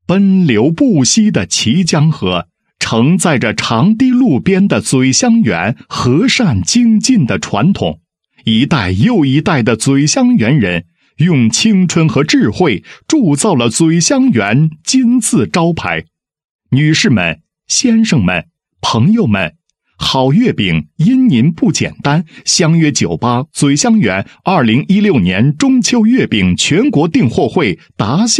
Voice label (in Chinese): 男 宣传广告 中秋月饼订货会宣传; 咀香园